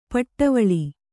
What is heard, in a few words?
"ಪಡೆವಳ್ಳ", Kannada